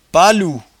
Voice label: "paloupioche", patois jura